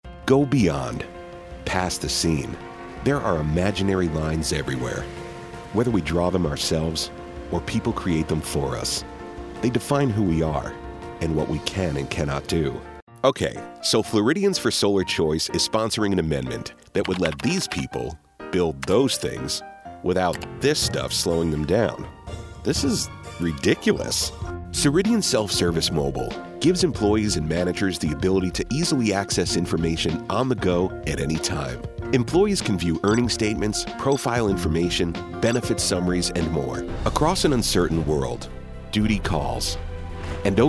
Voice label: Narration